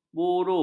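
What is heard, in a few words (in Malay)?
"buru", Malay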